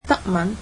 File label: "German", cantonese